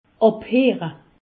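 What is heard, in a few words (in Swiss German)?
"abheere", Alsatian; medecine; ausculter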